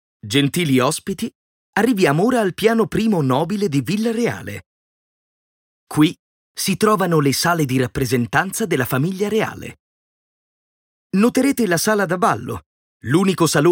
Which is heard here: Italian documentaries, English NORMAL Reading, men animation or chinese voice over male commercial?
Italian documentaries